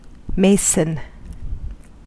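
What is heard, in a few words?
"mason", US English; pronunciations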